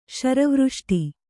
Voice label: "ಶರವೃಷ್ಟಿ", Kannada